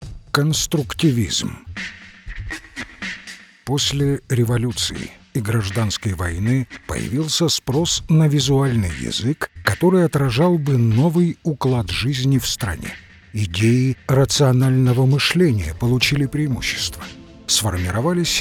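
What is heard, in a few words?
russian male narration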